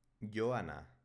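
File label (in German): "joana", baskische Mädchennamen, Aussprache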